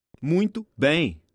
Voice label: conversation